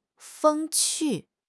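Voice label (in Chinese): "风趣", Mandarin Chinese; HSK6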